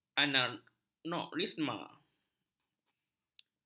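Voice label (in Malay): "anakronisme", Malay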